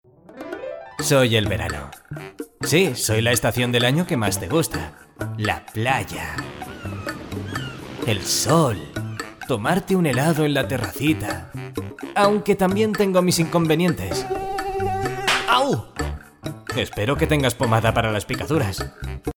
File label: male spanish mosquito comercial; ideasonora